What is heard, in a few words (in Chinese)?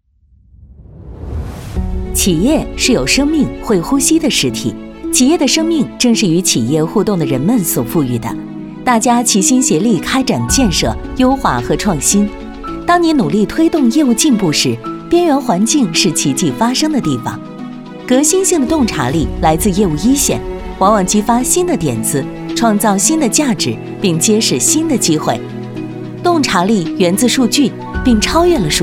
专题 科技感企业